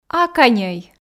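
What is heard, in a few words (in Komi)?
"аканьӧй", female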